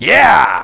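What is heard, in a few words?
"yeah", male; effects; rashdemo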